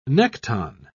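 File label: "nekton", British English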